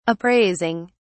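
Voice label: "appraising", British English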